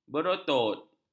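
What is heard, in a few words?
"berotot", Malay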